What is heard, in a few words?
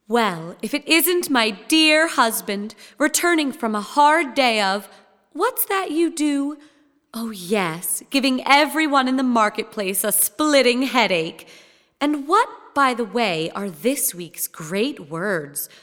Dialog